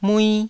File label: "mui1", taiwanese